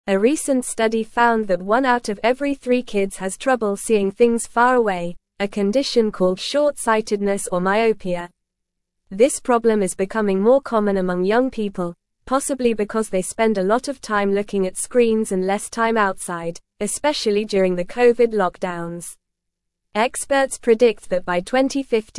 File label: English Upper Intermediate NORMAL Reading; Rising Myopia Rates in Children Global Concerns